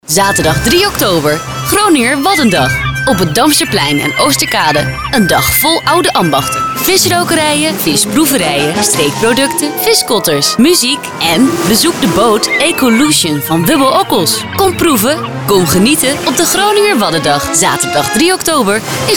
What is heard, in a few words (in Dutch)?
Commercial; Groninger Waddendag 2015; OOG RTV